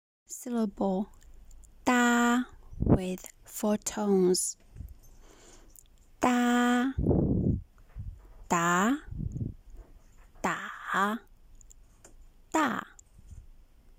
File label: da with 4 tones, Mandarin